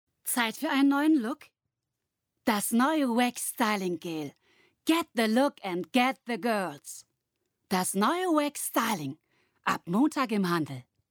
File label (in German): Werbung; Wax Styling